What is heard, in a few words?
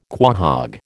"quahog", American